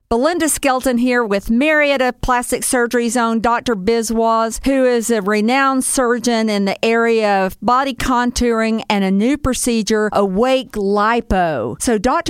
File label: commercial; Inspire Aesthetics Grp; Lenz on Business; Awake Lipo; Awake Liposuction